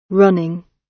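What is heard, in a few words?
"running", British English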